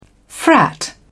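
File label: "frat", English, British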